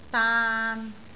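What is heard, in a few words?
"daan3", Cantonese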